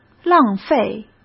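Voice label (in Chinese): "浪费", Mandarin Chinese; chinese flashcards; hsk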